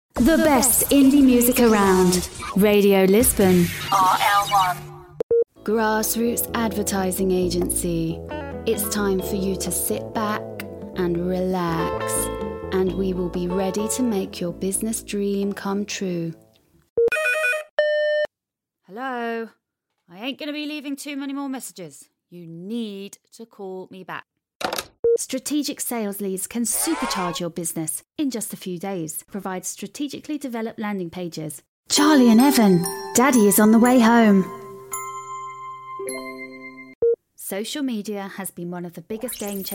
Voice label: Commercial Voice Over